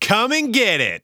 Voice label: taunt come and get it; Counter Strike; CS:GO; taunts